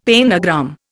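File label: "pentagram", Indian English; Dictionary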